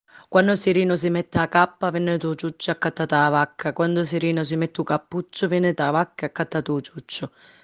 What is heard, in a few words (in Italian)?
dialeto Basilicata; Notte dei Racconti 2020